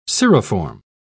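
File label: "cirriform", British English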